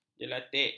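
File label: "jelatik", Malay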